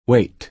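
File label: "wait", American English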